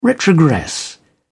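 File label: "retrogress", British English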